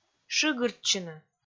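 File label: "шыгыртчыны", Komi, female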